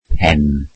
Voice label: welsh head

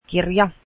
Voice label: book, Finnish

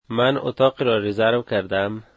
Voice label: dari I have a reservation

